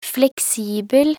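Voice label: "fleksibel", Norwegian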